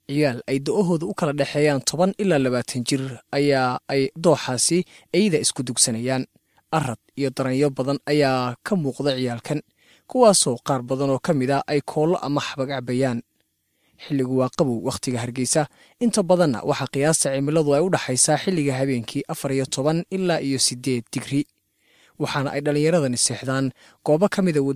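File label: Somali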